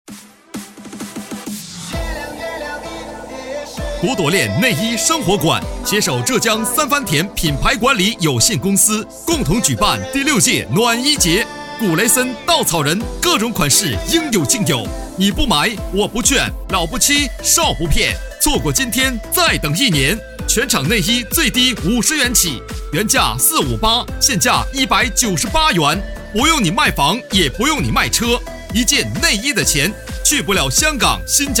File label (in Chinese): 促销